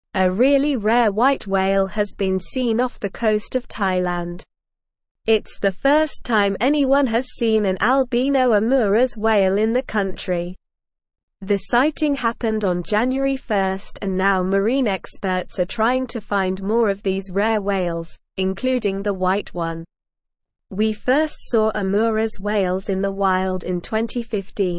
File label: English Upper Intermediate SLOW Reading; English Newsroom; Rare Albino Omuras Whale Sighted off Thailand Coast